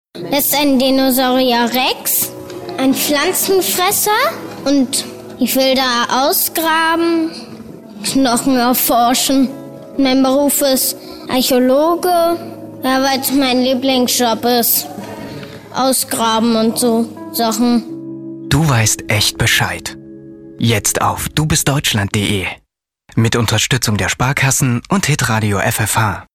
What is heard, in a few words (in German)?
werbung; du bist deutschland